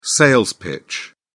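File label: "sales pitch", British English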